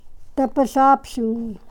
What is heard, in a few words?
"tapasapsuun", karuk